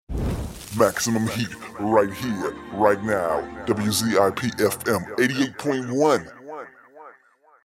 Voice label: sweeper; heat